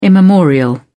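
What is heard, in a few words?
"immemorial", British English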